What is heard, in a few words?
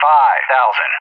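"5000", English; JTAC; Numbers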